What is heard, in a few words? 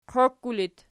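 "qiokku" locative, Archi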